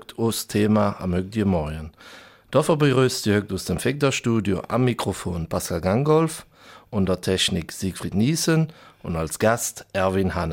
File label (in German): Eifeler Mundart; Vegder Kirmes